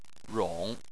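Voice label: "rong", Chinese, tone 3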